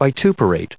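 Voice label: "vituperate", US English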